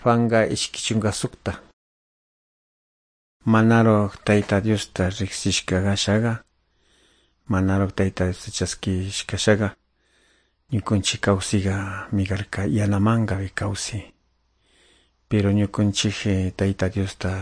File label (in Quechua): good news quichua de calderon